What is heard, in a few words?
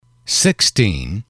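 "sixteen", English, male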